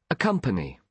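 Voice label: "accompany", British English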